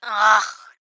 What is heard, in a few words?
girl saying ugh